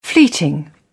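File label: "fleeting", British English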